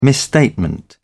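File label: "misstatement", British English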